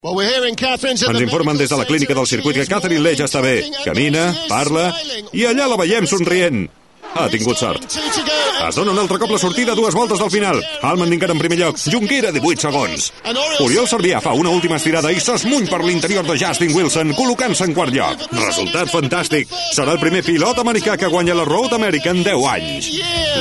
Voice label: Catalan voz masculina grave

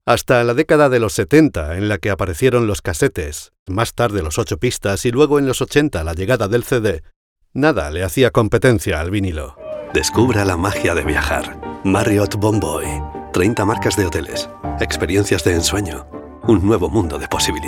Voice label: Voz masculina media

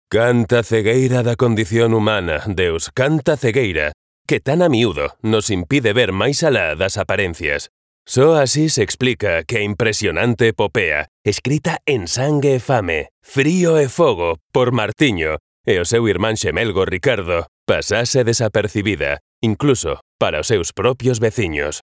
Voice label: voz masculina gallego

